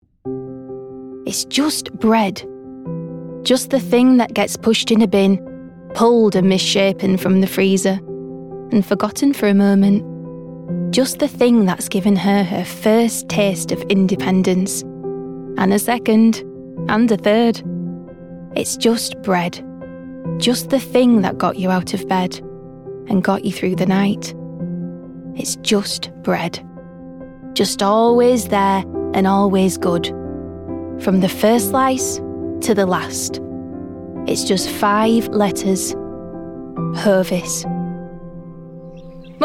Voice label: commercial